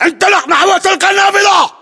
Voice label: order cover window, battle chatter, english